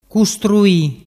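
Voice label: "custrui", Vernazzese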